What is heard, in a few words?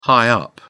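"high-up", English, British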